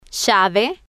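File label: "llave", Spanish, female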